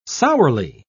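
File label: "sourly", British English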